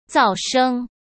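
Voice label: "噪声" Mandarin Chinese; hsk; chinese-flashcards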